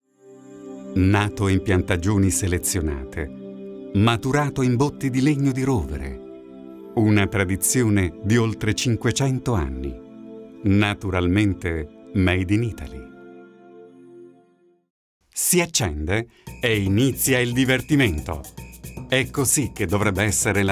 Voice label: commercial werbung; LOFT VOICES

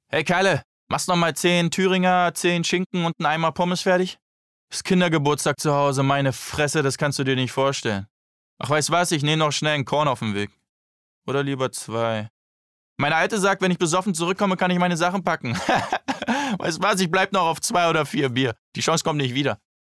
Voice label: synchron prolet schraeger typ

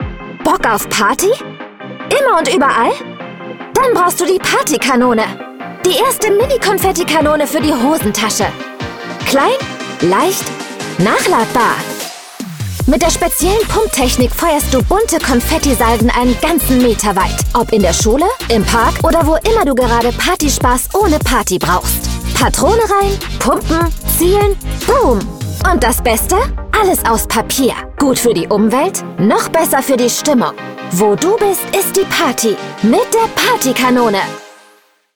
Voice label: Werbung Hardsell, "hardsell, dynamisch", Commercial, German; Werbung Partykanone

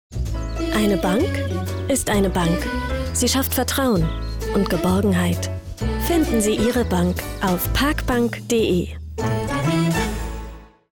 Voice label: bank werbung

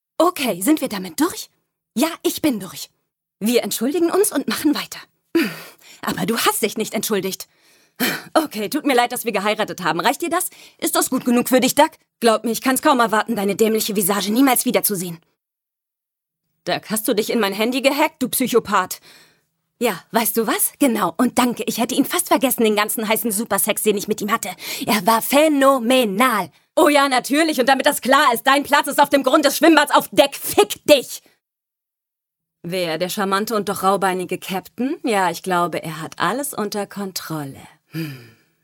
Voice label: Synchron Comedy

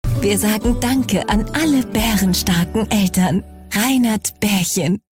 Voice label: Werbung deutsch, Commercial (Werbung); voice; Reinert Bärchenwurst TV-Spot; Muttertag; German

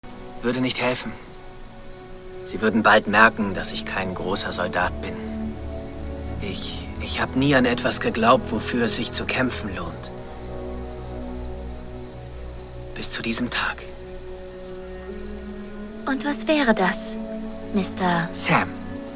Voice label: Synchron Schlacht; Schlacht von South Market

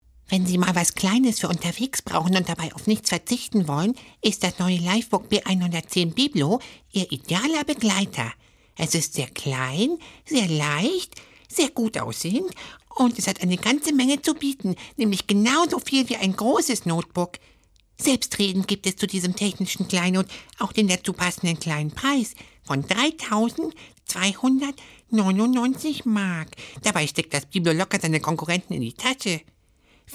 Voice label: trickstimme

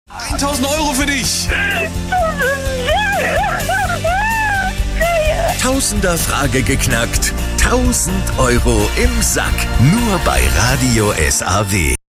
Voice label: Stationvoice Radio

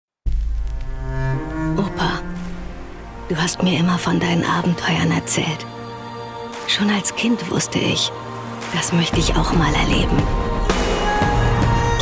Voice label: Webspot; Wikinger Reisen

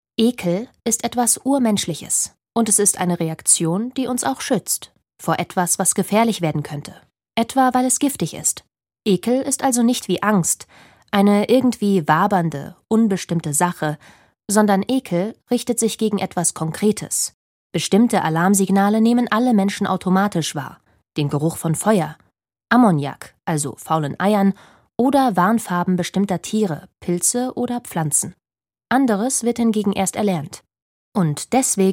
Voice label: Kommentar; DLF; Systemfragen Ekel

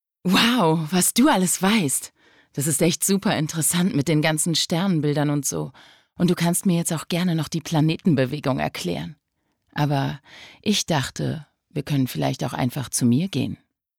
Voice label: szene sexy

